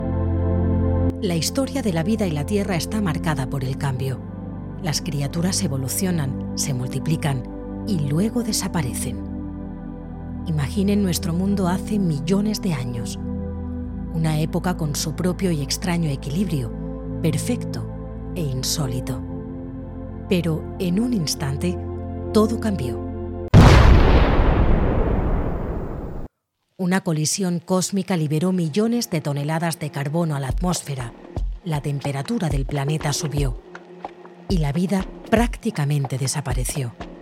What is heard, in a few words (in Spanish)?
Documental